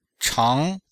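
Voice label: "chang2", chinese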